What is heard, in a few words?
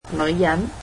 "woman", Cantonese